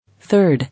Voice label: "Third", English

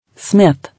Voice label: "Smith", English

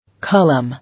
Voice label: "Column", English

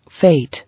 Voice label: "Fate", English

